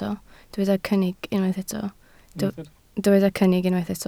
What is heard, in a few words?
"cynnig", Welsh